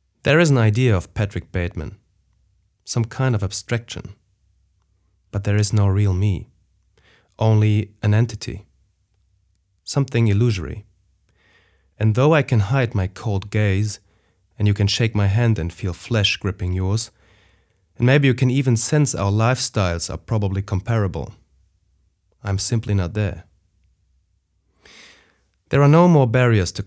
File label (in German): englisch Sprachprobe nicht nativ